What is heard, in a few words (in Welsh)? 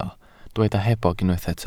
"hebog", Welsh